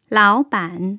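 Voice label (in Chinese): "lǎobǎn", Mandarin; tone changes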